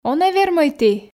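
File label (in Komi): он-ӧ вермӧй ті, Komi, female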